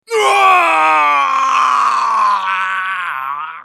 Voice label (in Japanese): ウォーロック男 死亡